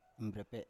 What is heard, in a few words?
"mbrepe7", Biak